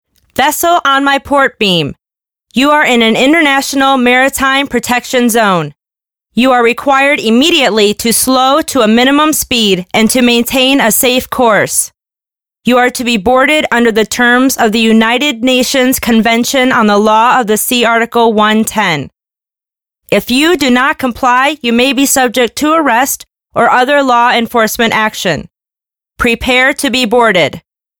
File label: international boarding announcement